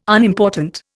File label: "Unimportant", Indian